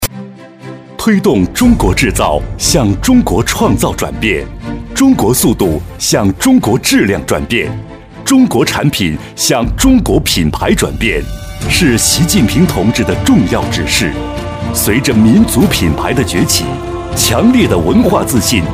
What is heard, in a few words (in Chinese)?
品牌 发布 大气 力度